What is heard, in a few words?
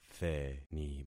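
"theni", concatenated syllables; Affixing experiment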